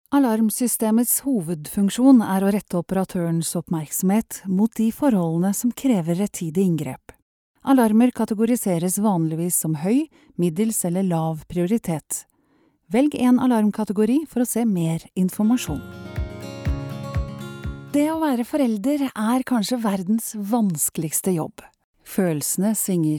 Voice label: female norwegian compilation of corporate e-learning narrations; voices